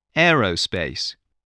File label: "aerospace", English voice